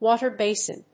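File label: "water basin", English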